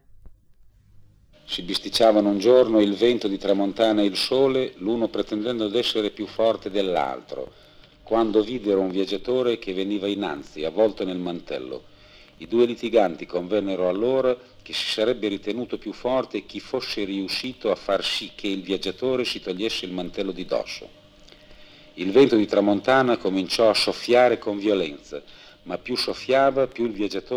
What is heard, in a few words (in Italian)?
Favola Bologna